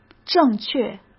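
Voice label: "正确" Mandarin Chinese; chinese flashcards; hsk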